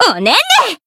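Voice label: Voice finish Japanese; millecha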